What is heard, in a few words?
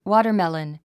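"watermelon", English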